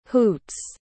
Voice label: "hoots", pronounce

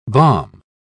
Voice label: "balm", pronounce

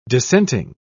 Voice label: "dissenting", pronounce

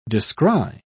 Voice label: "descry", pronounce

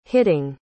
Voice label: "hitting", pronounce

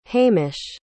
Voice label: "hamish", pronounce

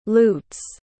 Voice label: "lutes", pronounce

